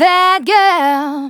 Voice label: Phrase Female; Vengeance Samples; Phrases